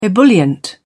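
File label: "ebullient", British English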